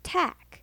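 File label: "tack", English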